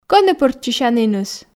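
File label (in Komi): кӧні пӧрччысяніныс, Komi, female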